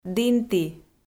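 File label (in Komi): "дінті", Komi, female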